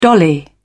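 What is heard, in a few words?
"dolly", British English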